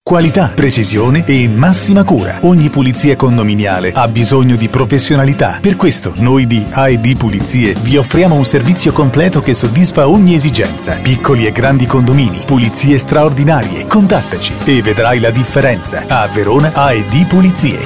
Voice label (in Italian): spot